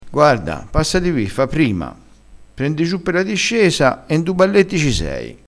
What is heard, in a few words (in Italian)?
"n du balletti", crespinese